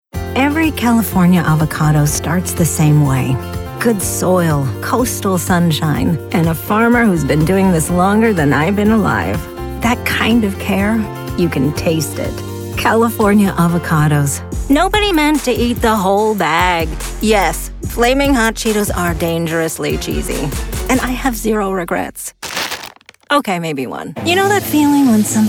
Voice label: COMMERCIAL, voice demos